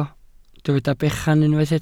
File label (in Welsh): "bechan", Welsh